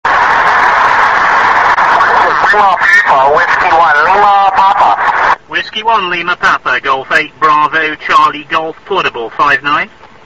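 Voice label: amateur radio contact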